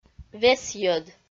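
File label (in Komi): "весйӧд", Komi, female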